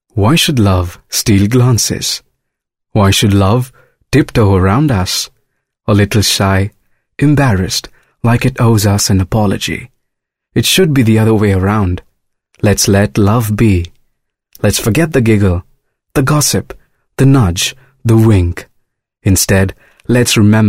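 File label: english voice over, male voice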